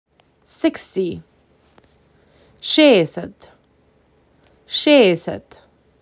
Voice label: MAC 6, macedonian phrasebook